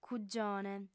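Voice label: "cugione", female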